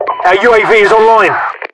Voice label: our uav online, localized english, UK voiceovers; mpvoice

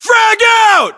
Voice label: US inform attack grenade, battlechatter, english

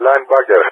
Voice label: "Linebacker", ENG; AirDefence; Allied Flight; DCS

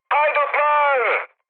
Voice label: enemy detected, mood high, speech tur; War Thunder

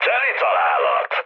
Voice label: bomb success, mood high, speech hun; War Thunder

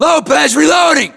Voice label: inform reloading, US

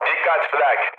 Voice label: damaged aa, mood low, speech tur; War Thunder

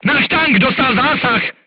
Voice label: voice message player tank hit, crew tanks czech

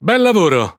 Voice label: Speech Quick Commands Good Game, IT; World Of Warships

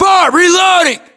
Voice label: inform reloading, US

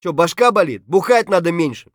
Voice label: scav mutter, voice; Escape from Tarkov; scav3

